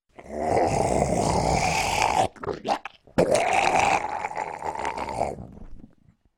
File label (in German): Zombie Sprach